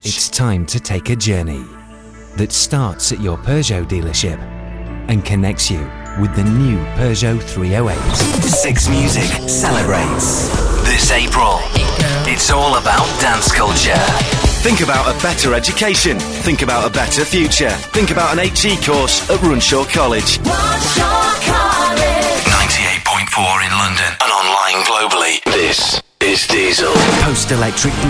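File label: UK English, general; GoLocalise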